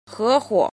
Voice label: "jin", Chinese

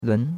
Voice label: "lun2", chinois; Cours de Chinois

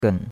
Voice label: "gen3", chinois; Cours-de-Chinois

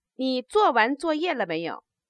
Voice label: interrogative, Chinese

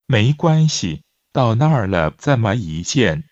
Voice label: Mandarin Conversation

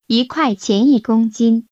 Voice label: Mandarin Conversation

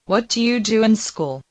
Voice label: Mandarin Conversation

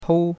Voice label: "pou1", chinois; Cours de Chinois

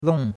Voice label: "long4", chinois; Cours de Chinois

